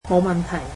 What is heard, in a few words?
"no problem", Cantonese